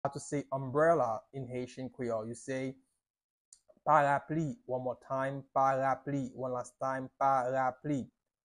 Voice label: Parapli pronunciation by a native Haitian Creole tutor; How to say Umbrella